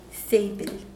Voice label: "table", Mokilese

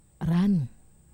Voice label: "ran(u)", Wamesa

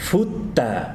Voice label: "grande", Tsesungun

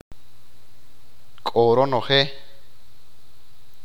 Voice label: complete, achi

